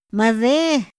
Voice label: "ma de", Otomí; INPI; INALI

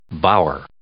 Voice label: "bower", English

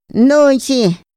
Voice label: "nonxi", Otomí; INPI; INALI

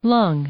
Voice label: "lung", English; noun

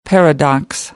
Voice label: "paradox", American English; ɛ - æ

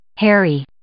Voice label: "harry", English

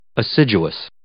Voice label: "assiduous", English

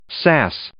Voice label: "sass", English

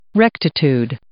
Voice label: "rectitude", English

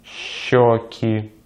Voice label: "щёки", Russian; Consonantes en ruso; easypronunciation

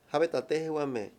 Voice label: "avisar", Mayo; Habla y lenguaje

